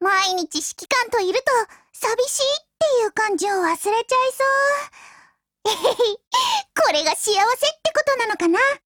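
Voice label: DIALOGUE WEDDING, Japanese; MP446 Mod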